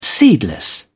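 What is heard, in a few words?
"seedless", British English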